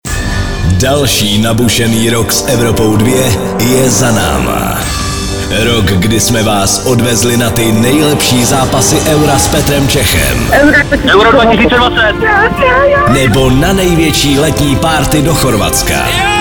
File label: promo; bilancni 2021